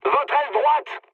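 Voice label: right wing, mood high, French; War Thunder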